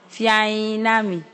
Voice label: good evening, ewe